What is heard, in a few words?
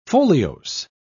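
"foliose", British English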